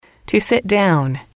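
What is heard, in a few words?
"to sit down", English, female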